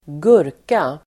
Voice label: "gurka", Swedish

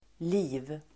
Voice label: "liv", Swedish

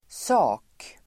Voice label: "sak", Swedish

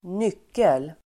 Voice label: "nyckel", Swedish

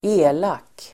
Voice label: "elak", Swedish

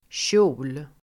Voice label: "kjol", Swedish

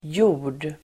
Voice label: "jord", Swedish

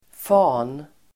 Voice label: "fan", Swedish

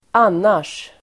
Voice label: "annars", Swedish

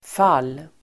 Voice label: "fall", Swedish

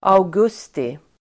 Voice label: "augusti", Swedish

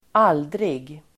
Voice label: "aldrig", Swedish

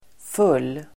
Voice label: "full", Swedish

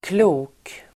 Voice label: "klok", Swedish

